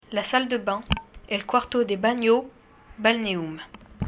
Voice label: "balneum", latin; bessines sur gartempe college mendes france latin